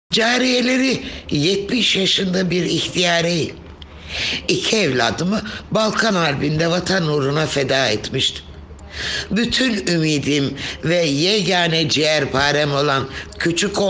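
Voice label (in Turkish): belgesel tarih, seslendirme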